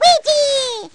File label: baby "weegee"; baby mario